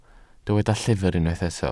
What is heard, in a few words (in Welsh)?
"llyfr" Welsh